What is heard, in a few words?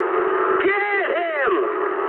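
English attack position mood high; speech; War Thunder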